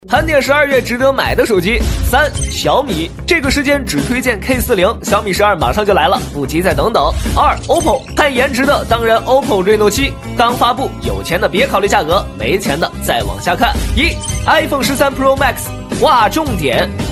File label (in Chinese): 卖货信息流